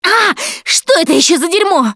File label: gooed by spitter, russian; Producer